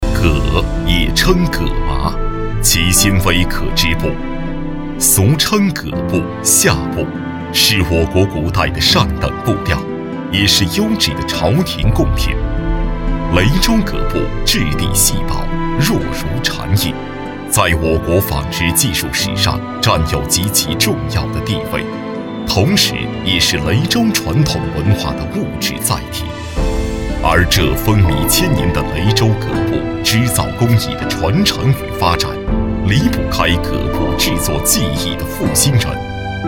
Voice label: 男, 解说类, 浑厚稳重; putonghua

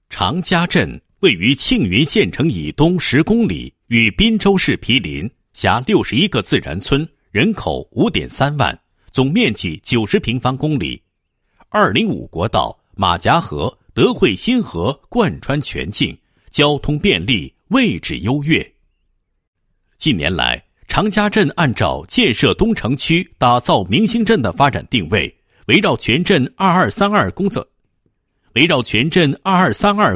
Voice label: 男, 地区经济建设; 常家镇招商引资情况

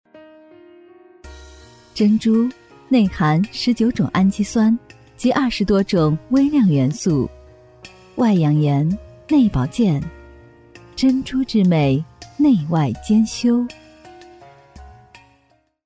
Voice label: 女 广告 端庄 知性 珍珠

